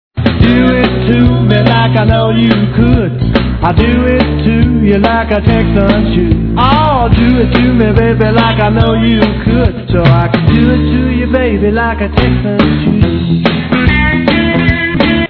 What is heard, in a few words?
texan